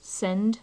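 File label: "send", British English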